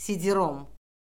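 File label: "sidirom", Azerbaijani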